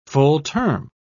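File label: "full-term", British English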